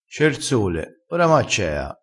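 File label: "accea", Italian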